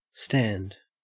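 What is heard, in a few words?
"stand", Australian English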